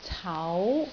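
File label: "cau2", Cantonese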